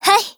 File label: AGREE, JP